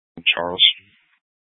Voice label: Sheriff Dispatch, scanner; Mesa County

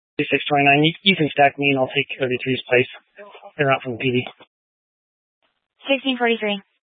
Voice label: Police Dispatch; Police 1 Dispatch

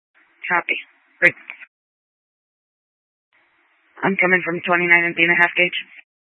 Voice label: Police Department Dispatch; Grand Junction

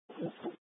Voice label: Incident Tac 3, scanner

